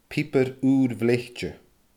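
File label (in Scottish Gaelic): "piobar uur bhleithte", Scottish Gaelic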